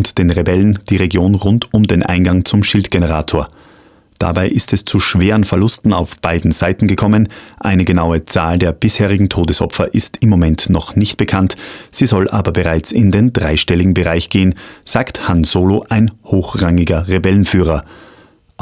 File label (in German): Nachrichten